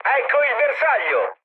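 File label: Italian near waypoint mood med, speech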